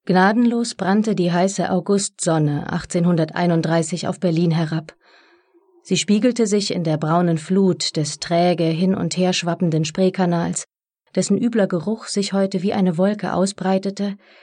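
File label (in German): Hörbuch Geschichtsroman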